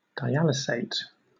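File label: "dialysate", British English; pronunciations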